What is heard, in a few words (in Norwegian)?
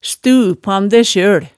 "stupand sjøl", hemsedalsmaalet